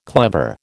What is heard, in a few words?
"clever", American